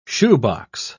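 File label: "shoebox", British English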